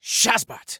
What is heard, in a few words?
"Shazbot", Soldier Male; Soldier Male 01